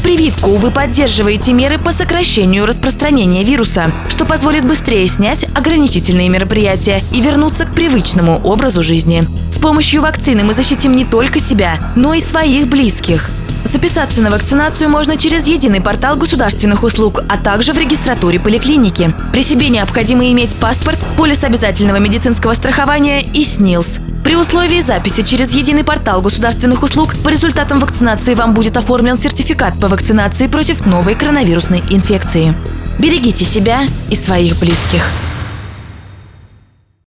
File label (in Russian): Аудио ролик Вакцинация